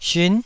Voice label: "syun9", Taiwanese

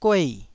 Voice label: "guei4", taiwanese

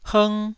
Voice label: "hng1", taiwanese syllable

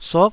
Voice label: "suk9", taiwanese Syllables

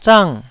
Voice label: "zang4", taiwanese Syllables

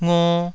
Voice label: "ngo2", taiwanese syllables

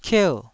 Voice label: "kier4", taiwanese syllable

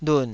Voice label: "lun3", Taiwanese syllable

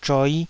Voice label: "zhoi5", Taiwanese

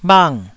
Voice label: "bhang4", taiwanese

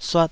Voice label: "suat2", taiwanese Syllables

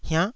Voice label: "hiannh9", Taiwanese syllable

